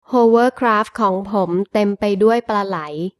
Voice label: hovercraft tailandes

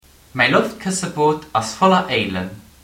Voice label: hovercraft luxemburgues

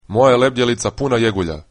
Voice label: hovercraft croata

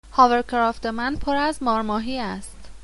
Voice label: hovercraft farsi

